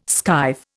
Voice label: "skive", Indian